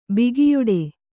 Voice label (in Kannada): "ಬಗೆಯಿಡು" Kannada; bageyiḍu; (Verb)